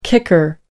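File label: "kicker", British English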